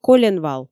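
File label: "коленвал", Komi, female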